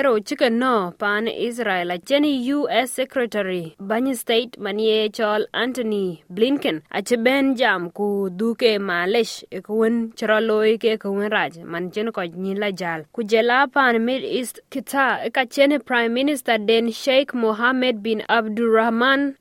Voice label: dinka, podcast; SBS DINKA NEWS FLASH 09/01/2024; U-S Secretary Antony Blinken; Wael al-Dahdouh